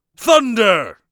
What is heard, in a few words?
thunder, mage voice; Mage Voice Pack